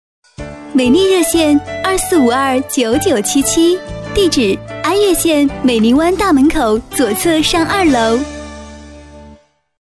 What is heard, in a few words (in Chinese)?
女 车载广告; 国语女声配音; 美丽热线